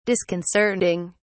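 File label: "disconcerting", English, British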